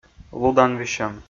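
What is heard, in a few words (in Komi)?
"лудан висьӧм", Komi, male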